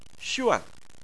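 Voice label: "xuan", Mandarin Chinese, fourth tone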